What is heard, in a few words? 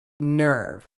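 "nerve", British English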